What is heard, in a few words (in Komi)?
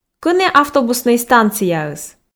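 Komi, кӧні автобуснӧй станцияыс, female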